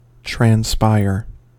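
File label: "transpire", US English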